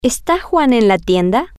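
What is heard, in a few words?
Spanish, female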